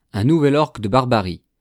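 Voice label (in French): un nouvel orgue de barbarie, French; nowa katarynka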